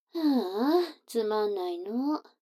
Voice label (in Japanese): 女の子台詞